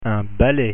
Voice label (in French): "balai" French; pronunciation